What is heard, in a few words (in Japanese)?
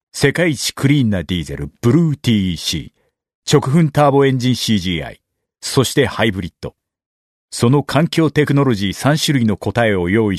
narration; m otituita funiki